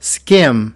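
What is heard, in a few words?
"skim" US English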